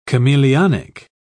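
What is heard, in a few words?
"chameleonic", British English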